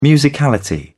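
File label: "musicality", British English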